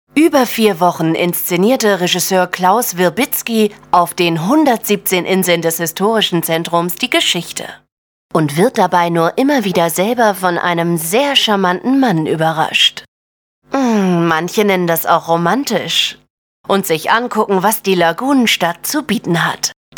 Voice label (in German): trailer ironisch